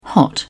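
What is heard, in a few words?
"hot", British English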